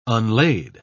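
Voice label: "unlaid", English, British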